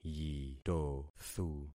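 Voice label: "yitothu", concatenated syllables; Affixing experiment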